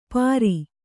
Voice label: "ಪಾರಿ", Kannada